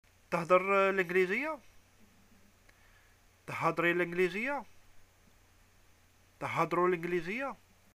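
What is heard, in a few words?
do you speak English, arabic, Algerian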